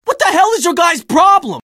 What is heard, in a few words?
jeers; scout; source engine; tf